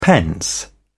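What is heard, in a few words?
"pence", English, British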